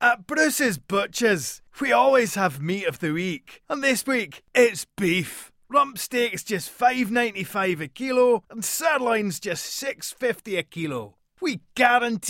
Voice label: Scottish butcher

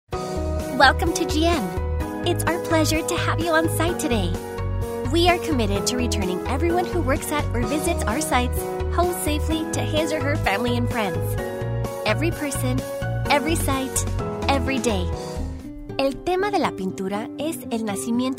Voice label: Narration

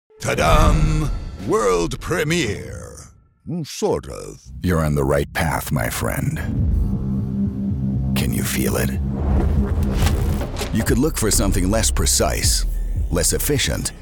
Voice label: US and International English; US and INTERNATIONAL ENGLISH AUDIO REEL

